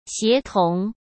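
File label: "协同", Mandarin Chinese; hsk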